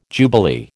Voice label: "jubilee", American